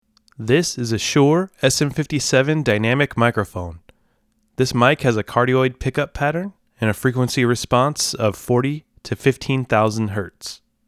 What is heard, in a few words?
Shure SM57 Voice UNCOMPRESSED